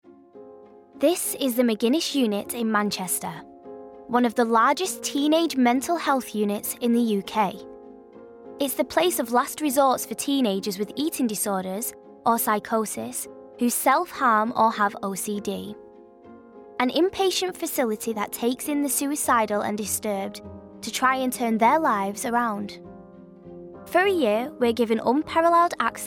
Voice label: hospital doco